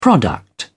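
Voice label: "product", English, British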